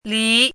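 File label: "lí", Chinese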